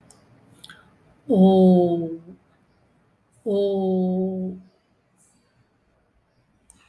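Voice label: ö (the long ö, as in 'owe'), Tamil, vowels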